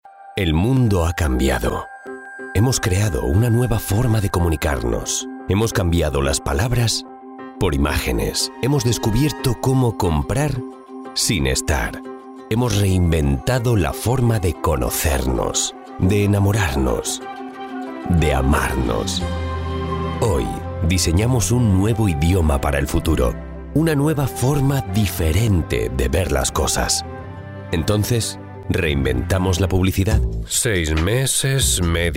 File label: male spanish comercial; ideasonora